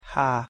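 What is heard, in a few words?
"h", Hungarian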